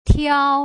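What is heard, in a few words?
"tiao", Mandarin Chinese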